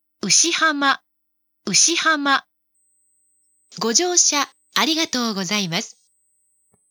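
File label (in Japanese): 到着放送, ATOSタイプF(女声), 青梅線; 鳴動タイミングはやや早めです。; 牛浜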